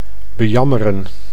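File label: "bejammeren", Dutch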